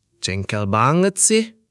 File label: indonesia, negative phrases